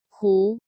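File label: "Hú", Chinese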